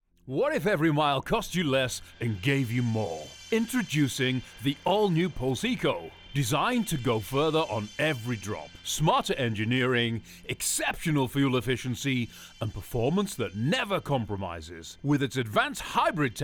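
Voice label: Eco Ad with music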